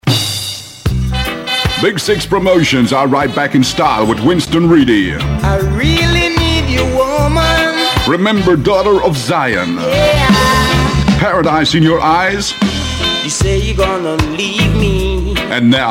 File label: advert; Big 6 Promotions